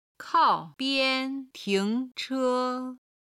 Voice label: "kao4 bian1 ting2 che1", Chinese; 中国のタクシー